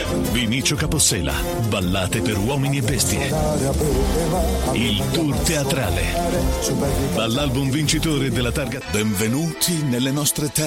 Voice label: Commercial